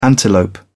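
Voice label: "antelope", British English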